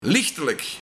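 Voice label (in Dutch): "Lichtelek", Luik, Waarschijnlijk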